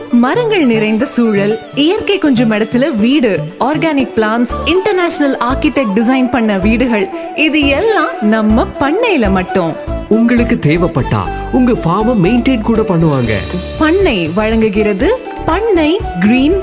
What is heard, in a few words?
Tamil Female